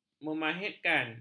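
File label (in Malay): "memahitkan", Malay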